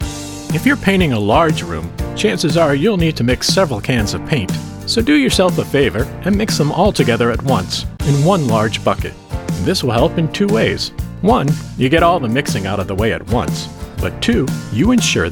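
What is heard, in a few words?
Narration helpful easy going advisory, male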